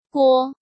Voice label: "Guo" 1